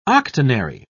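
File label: "octonary", English, British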